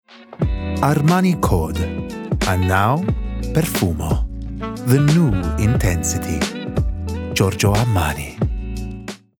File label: Smooth, Sexy, Cool, Italian; Armani